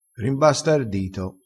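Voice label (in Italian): "rimbastardito", dialettale, crespinese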